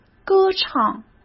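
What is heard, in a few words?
"歌唱", Mandarin Chinese; chinese flashcards; hsk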